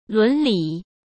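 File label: "伦理" Mandarin Chinese; hsk; chinese-flashcards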